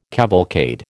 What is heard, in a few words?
"cavalcade", American